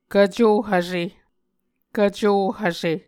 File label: "kvco hvse", Muscogee